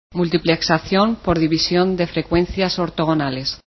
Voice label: ofdm, spanish